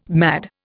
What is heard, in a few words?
"mad", Indian